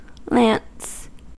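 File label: "lance", American English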